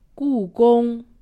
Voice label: "gugong", Chinese; Survival Chinese